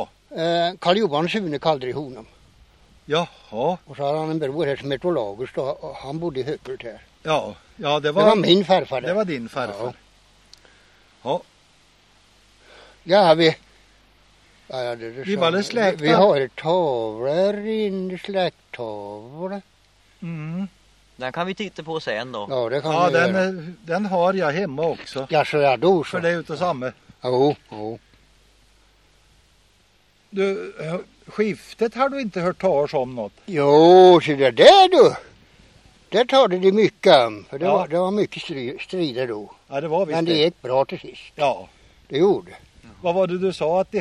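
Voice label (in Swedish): Kisa Östergötland, dialekter; Folkminnen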